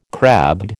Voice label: "crabbed", American